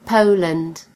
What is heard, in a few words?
"poland", en GB; gcompris